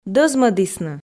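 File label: "дӧзмӧдісны", Komi, female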